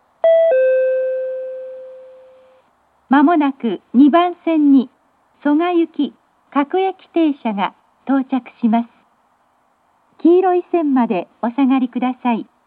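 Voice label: platform 2 down approaching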